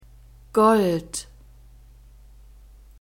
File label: "gold", German; neuter